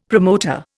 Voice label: "promoter", Indian; Dictionary